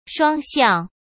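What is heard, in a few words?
"双向", Mandarin Chinese; hsk; chinese-flashcards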